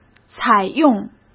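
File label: "采用", Mandarin Chinese; chinese flashcards; hsk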